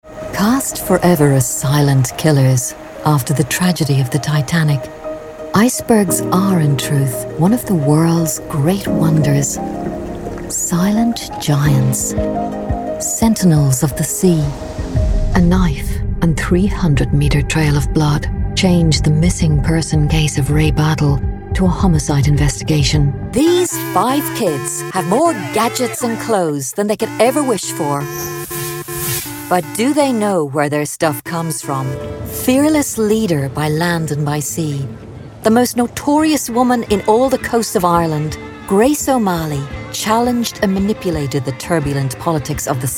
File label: TV documentary showreel, voiceover